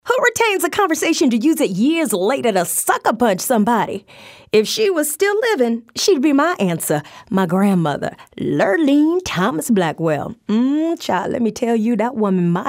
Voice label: urban youthful